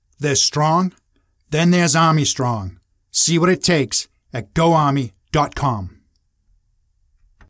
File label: Commercial VO